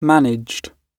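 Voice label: "managed", British English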